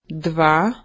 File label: "two" Slovenian